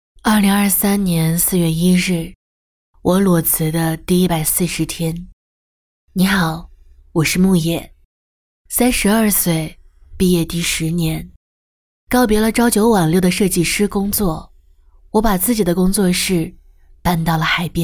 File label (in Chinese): 女性独白娓娓道来